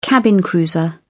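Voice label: "cabin cruiser", British English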